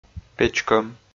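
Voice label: "печкӧм", Komi, male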